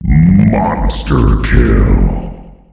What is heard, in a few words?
Monster Kill, announcer male; Unreal Tournament; ut2004